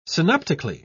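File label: "synoptically", British English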